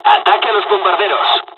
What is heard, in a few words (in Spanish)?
dialogs spanish; mission5; War Thunder